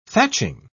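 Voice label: "thatching", British English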